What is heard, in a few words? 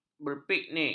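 "berpiknik", Malay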